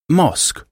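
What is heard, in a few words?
"mosque", English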